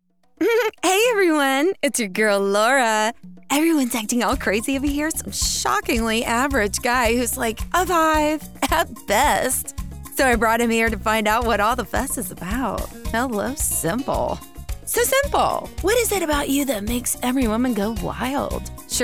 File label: Animation, Voiceover; Demo; Classy – Raspy – Sassy